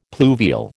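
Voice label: "pluvial", American English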